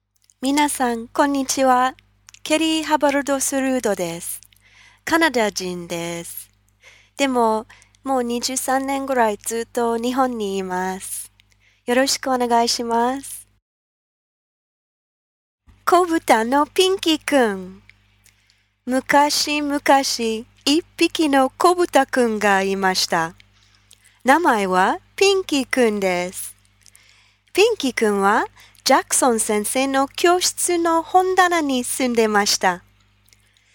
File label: Japanese; USA